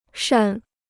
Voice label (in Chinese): "善", Chinese